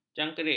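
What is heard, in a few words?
"jangkerik", Malay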